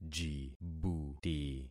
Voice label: "jibuti", concat syllables; Affixing experiment